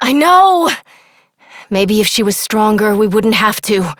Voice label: Operator Voice, en; Warframe; New War

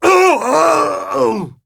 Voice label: Death, en, Dialog Barks Battle; Warframe; Chipper

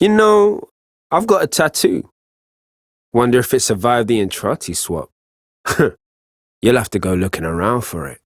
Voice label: Ambient Barks, en; Warframe; Mall Romance; Quincy

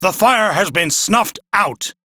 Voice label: Crew Fix Fire, Male; Warframe; Railjack Crew; Arbiters Hexis

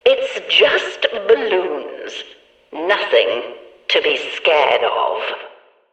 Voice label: Background Announcements

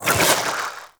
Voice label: Vocal Pain; Warframe; Sentients; Conculyst

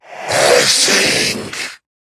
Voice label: Surprised; Zariman Wraith; en

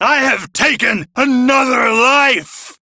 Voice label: Crew Enemy Defeat, Male; Warframe; Railjack Crew; Arbiters Hexis

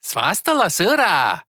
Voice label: Ost Male en; Cetus

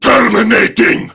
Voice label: Attack Prime Grineer Male; Tau; Battle Barks

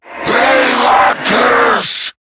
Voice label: Player Spotted, en; Zariman Wraith

